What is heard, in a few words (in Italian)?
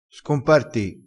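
"scomparti", dialettale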